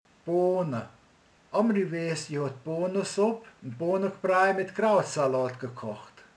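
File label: "bab", Hungarian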